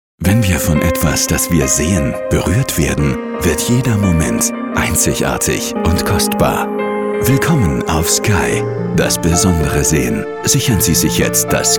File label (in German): Telefonansage